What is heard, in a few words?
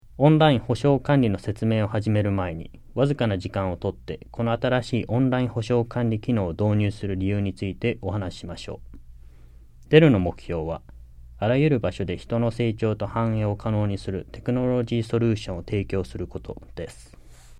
JAPANESE; delltranslations